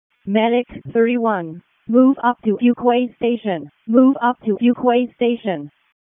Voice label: EMS ALERT; wake